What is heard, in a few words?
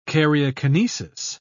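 "karyokinesis", British English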